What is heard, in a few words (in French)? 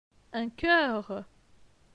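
"Un choeur", French; phonetique; les consonnes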